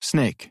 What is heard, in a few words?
NORMAL SNAKE, American; American IPA phonic